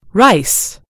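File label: "rice", American English; gcompris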